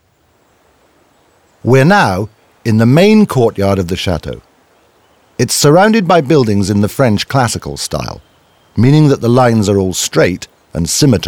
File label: audioguide en enfant; modave